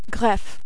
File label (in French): "greffe", French